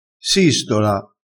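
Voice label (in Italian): "sistola", dialetto crespinese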